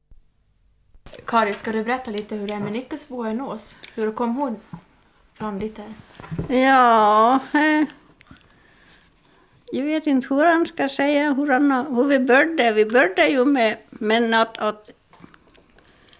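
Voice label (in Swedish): Nås Dalarna, Svenska sagor, dialekter; Folkminnen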